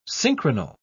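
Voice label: "synchronal", English, British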